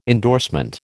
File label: "endorsement", American